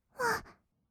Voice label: "わあ", kawaii voice; 1200 kawaii voice!!; 色々なワンショット

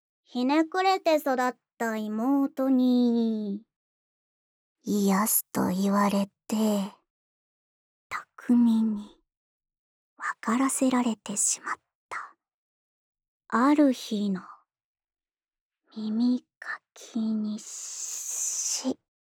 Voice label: タイトルコール; 童貞兄妹03

